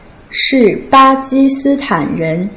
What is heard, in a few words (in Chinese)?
shi ba ji si tan ren, Putonghua